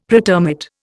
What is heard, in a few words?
"pretermit", Indian; Dictionary